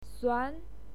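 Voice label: "suan", Mandarin Chinese, second tone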